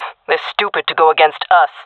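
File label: round start, female voice; jungle; Counter Strike Model Pack; CS:GO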